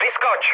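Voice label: bailout, Czech, mood med; War Thunder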